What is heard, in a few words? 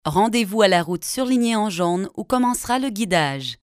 French Canadian; foreign languages